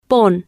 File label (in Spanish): "pon", Spanish